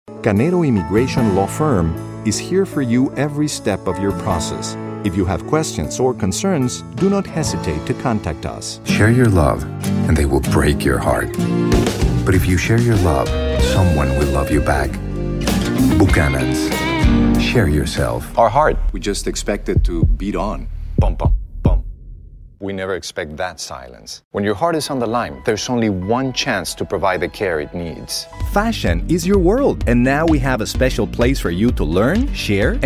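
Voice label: Male Voice Over Talents English Commercial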